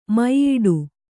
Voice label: "ಮೆಯ್ಯಿಡು", Kannada

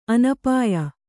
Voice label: "ಅನಪಾಯ", Kannada

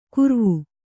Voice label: "ಕೂರ್ವು", kannada

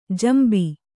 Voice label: "ಜಂಬಿ", Kannada

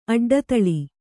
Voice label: "ಅಡ್ಡದೊಲೆ", kannada

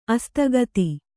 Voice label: "ಅಸ್ತಗತಿ", kannada

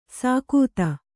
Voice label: "ಸಾಕೂತ", Kannada

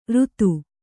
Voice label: "ರುತು", Kannada

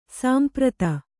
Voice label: "ಸಂಪ್ರೀತ", Kannada

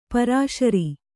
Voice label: "ಪೇರುಸಿರು", Kannada

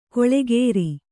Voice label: "ಗಳೆಕಾರ", Kannada

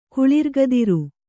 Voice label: "ಕುಳಿರ್ಗದಿರ", Kannada

